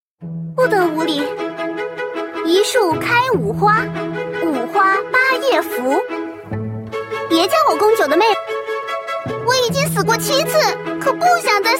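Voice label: girl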